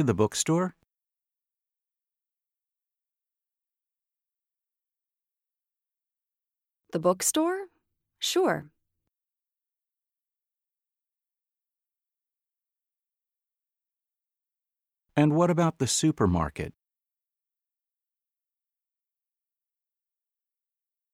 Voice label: Pronunciation Practice; Connectivity Foundation